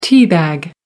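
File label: "tea bag", British English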